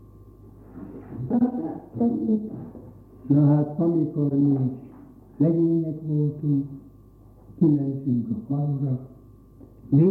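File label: dialect collections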